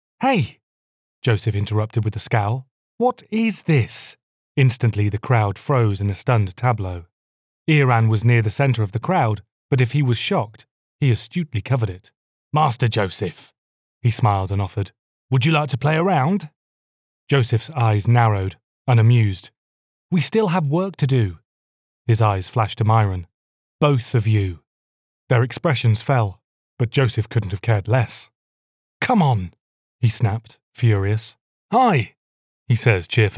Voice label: english british audiobooks